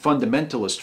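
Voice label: "fundamentalist", American English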